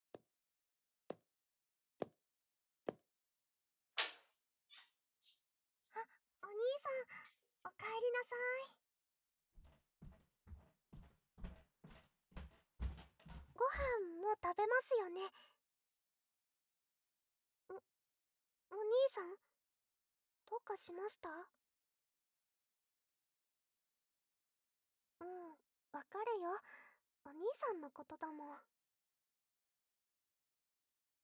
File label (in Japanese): 密着囁き・耳キス 耳舐め; 全肯定なでなで